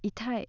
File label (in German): "itai" schmerzend, japanese accent